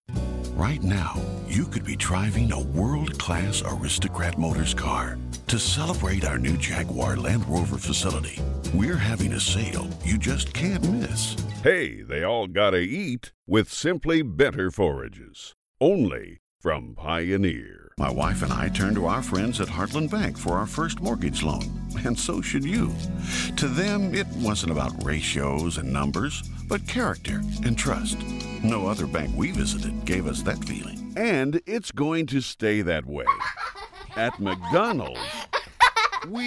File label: Commercial